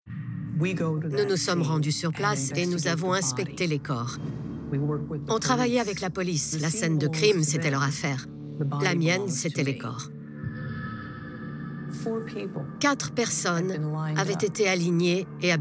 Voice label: voice over; homicide new york

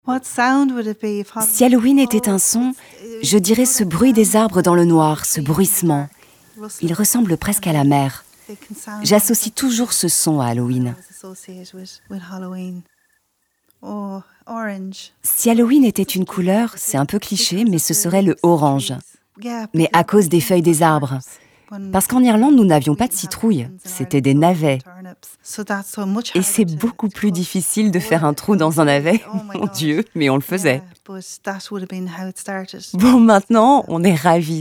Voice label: VOICE OVER PODCAST Voyages; voix-off; Sur les traces D'Haloween; VOYAGE IRLANDE

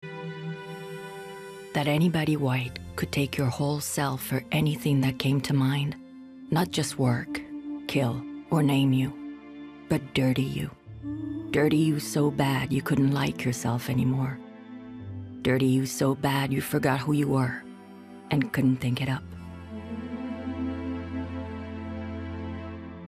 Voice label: narration; Toni Morrison; Beloved

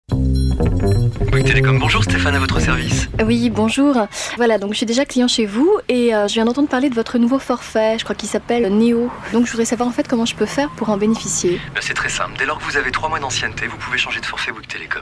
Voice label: Testimonial; Bouygues

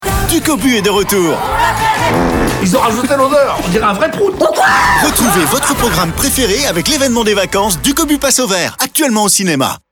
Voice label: Billboard; DUCOBU PASSE AU VERT

